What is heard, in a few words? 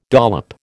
"dollop", American